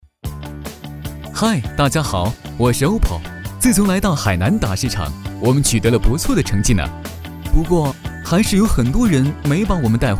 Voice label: 宣传片 病毒, 男; 小爱

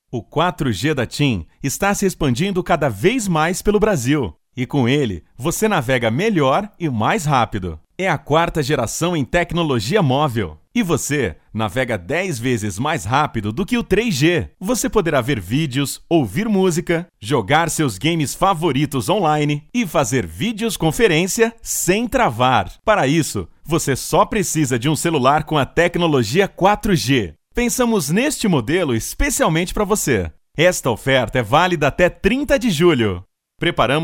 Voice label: 葡萄牙语男, 外语; 小语种

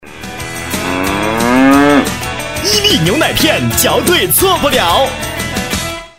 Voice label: 广告 品牌形象 牛奶片 时尚; 伊利牛奶片

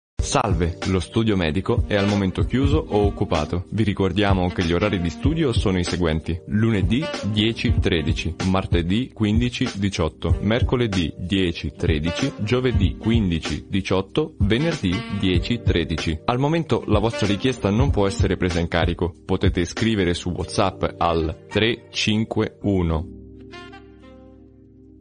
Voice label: 意大利语男 外语 小语种

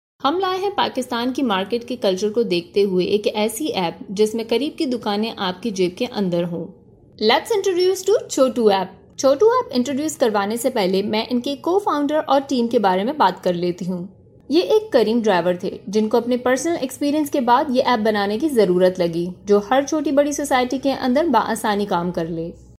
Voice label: 乌尔都语女 外语 小语种

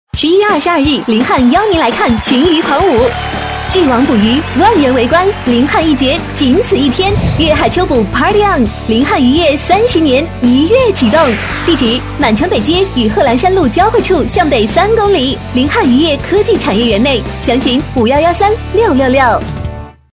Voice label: 广告 节日 活动广告 活力; 30周年

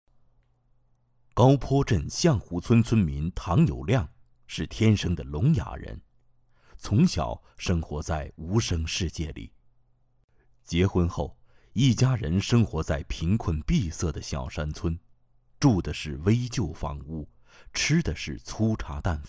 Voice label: 男 专题 人物; 感动中国

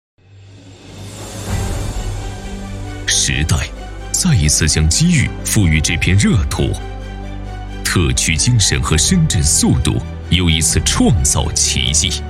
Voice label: 专题 汇报 城市更新汇报片 大气, 男国; 深圳龙华区城市更新汇报片

